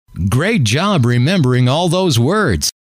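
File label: great Job Remembering All Those Words, male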